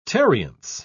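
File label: "tarriance", British English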